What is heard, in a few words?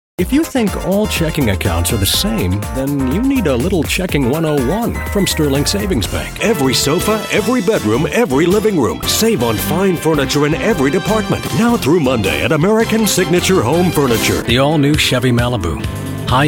Commercials Retail